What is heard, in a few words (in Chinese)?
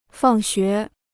"放学", Chinese